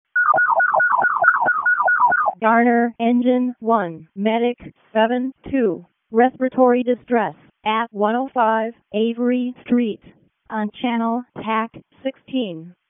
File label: WC EMS ALERT, p25; wake p25